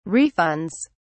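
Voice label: "refunds", British English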